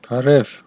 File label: hello, armenian western